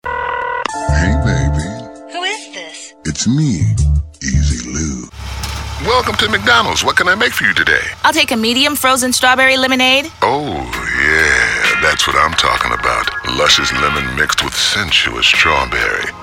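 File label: Commercial, real people male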